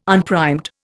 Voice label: "unprimed", Indian